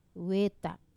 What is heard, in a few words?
"weta ko", tray, Wamesa